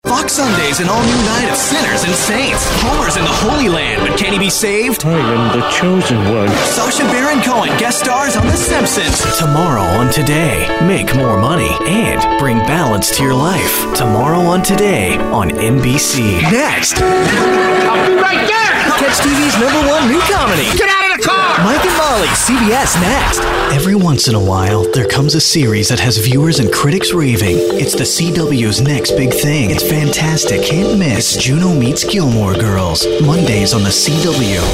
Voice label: men promos; Young. Real. Conversational. Guy next door. Down to earth. Non-announcery. Not 'selly'.